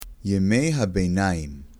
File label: "medieval", Hebrew; medieval times